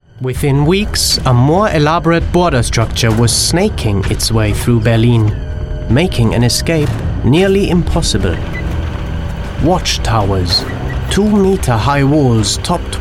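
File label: Ger Eng, German English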